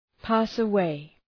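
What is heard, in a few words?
"pass away", English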